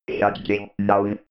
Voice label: robot voice shutting down

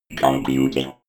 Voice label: robot voice computing fx

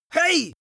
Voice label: angry warning hey male

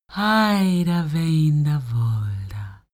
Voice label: female talk elfish

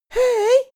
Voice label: boy shy "hey"

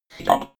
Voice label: robot voice stop fx

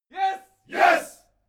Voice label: military shout english "yes"

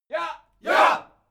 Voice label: military shout german yes

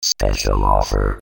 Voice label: spec offer, voices

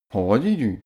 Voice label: male talk neutral

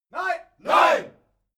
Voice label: military shout german "no"

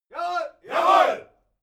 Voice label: military shout german yessir

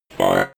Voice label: robot voice four fx

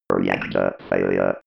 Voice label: robot voice reactor failure

